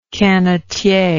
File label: "canotier", English